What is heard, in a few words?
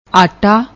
"ata", Punjabi